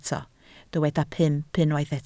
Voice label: "pump", Welsh